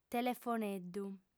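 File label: "telefoneddu", Sardinian, female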